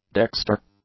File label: "dexter", english; adjectives